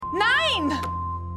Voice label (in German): Mitarbeiterin